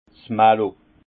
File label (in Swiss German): "malo", alsace; vin; fermentation malo lactique